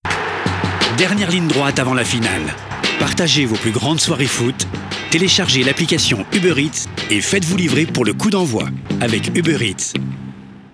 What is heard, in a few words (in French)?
Voix Off Sourire et Enthousiasme; UBEREATS